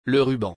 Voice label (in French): "ruban", Fourniture scolaire